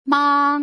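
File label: "mang", Mandarin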